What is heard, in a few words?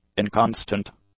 "inconstant", English